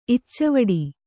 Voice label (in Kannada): "ಇಚ್ಚೆವಡು", kannada